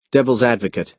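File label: "devil's advocate", American English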